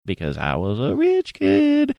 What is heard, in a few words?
saying because i was a rich kid sing songy